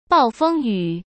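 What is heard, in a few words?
"暴风雨", Mandarin Chinese; hsk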